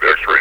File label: xray, metropolice vo; Half Life Alyx